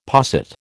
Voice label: "posset", American